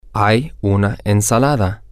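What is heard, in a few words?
Spanish, there is a salad, male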